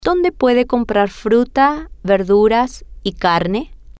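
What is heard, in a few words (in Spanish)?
Donde Puede Comprar Fruta Verduras Carne, spanish, female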